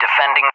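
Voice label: "defending" English, speech; DCS; Allied Flight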